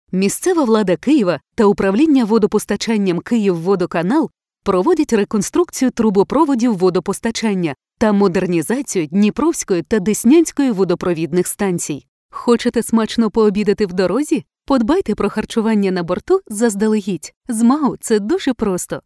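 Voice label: locutora ucraniana ukrainian voiceover, Ukrainian female voice over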